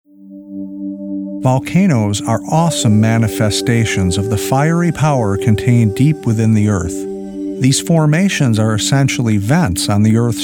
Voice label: Narrative